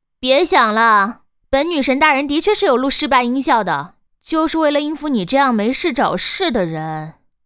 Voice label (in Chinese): 失败, BattleCry; ycrpg